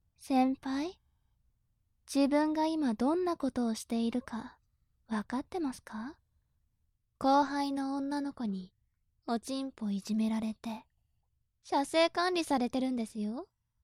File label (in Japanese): 調教中音声